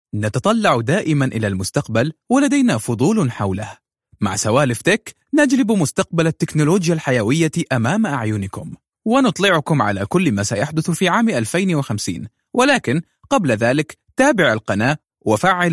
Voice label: narration biotechnology